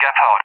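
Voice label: "Gepard", English, UK; AirDefence; DCS; Allied Flight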